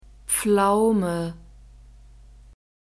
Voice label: "pflaume", German; fruits

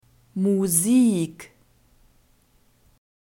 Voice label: "musik", German; nouns gender feminine

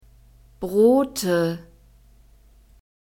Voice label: "Brote", German

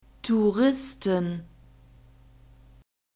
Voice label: "touristen", German

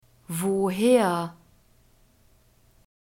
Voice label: "woher", German; alphabet

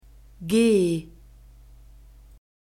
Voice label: "g", German

